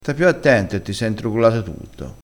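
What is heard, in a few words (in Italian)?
"introgolassi", crespinese dialettale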